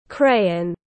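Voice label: "Crayon", English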